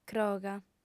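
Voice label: "croga", female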